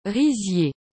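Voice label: "rizier", French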